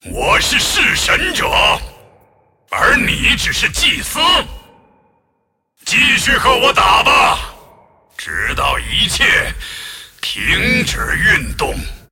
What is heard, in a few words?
move, zh